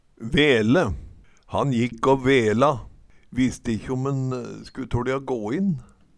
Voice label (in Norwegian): vele, Numedalsmål